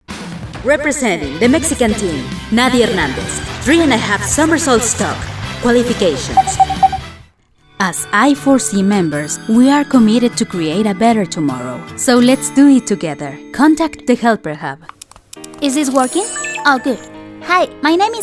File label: English